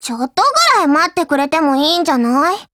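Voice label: Japanese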